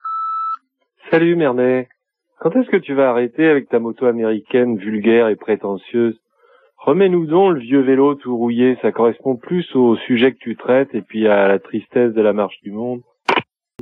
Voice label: Generique repondeur

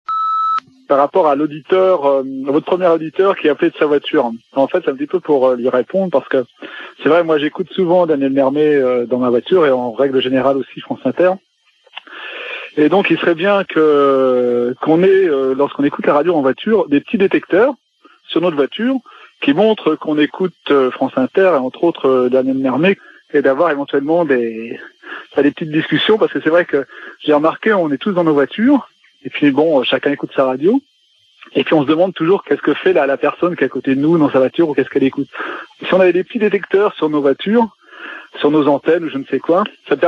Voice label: Autoradios repondeur

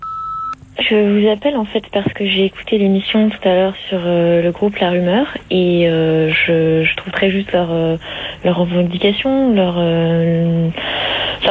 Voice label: repondeur

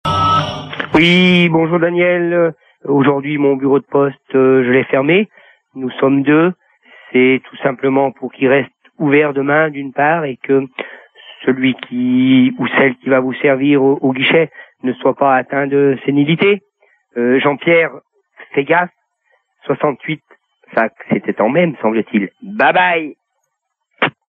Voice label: Manif retraites postier répondeur

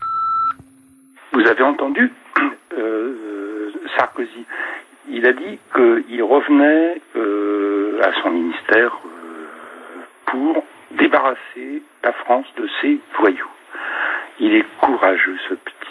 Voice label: répondeur; Nicolas Sarkosy

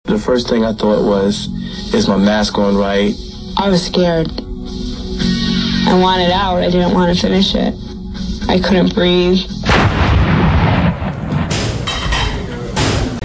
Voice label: Irak spot tv

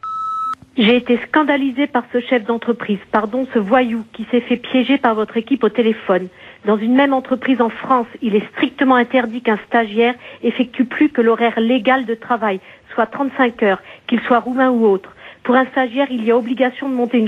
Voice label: repondeur; Canulard Bolkenstein

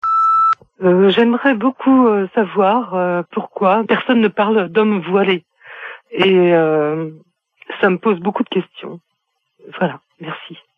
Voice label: répondeur

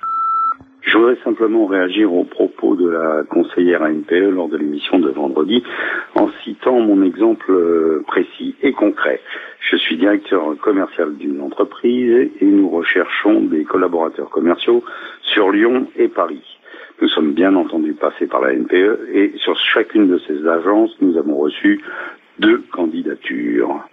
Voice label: repondeur; ANPE inneficace

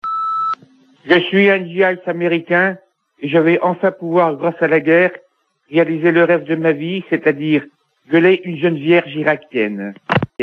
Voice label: Irak GI repondeur

